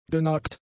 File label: "genagt", German; adjectives